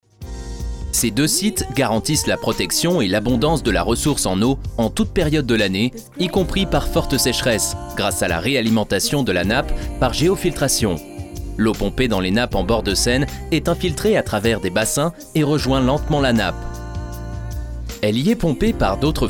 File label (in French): corporate; lyonnaise des eaux